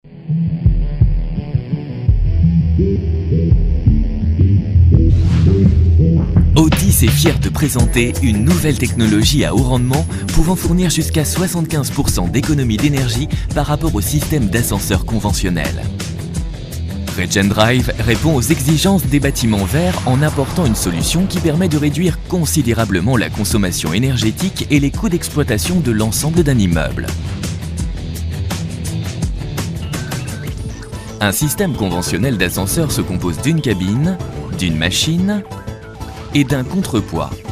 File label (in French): droit technique, comédien voix off institutionnel; OTIS droit, technique